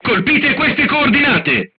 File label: voice message art command oneself, crew tanks italian